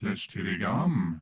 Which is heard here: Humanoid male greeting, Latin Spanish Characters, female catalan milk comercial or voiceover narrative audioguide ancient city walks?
Humanoid male greeting